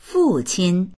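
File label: "fu4qin1", Mandarin Chinese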